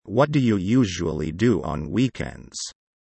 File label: low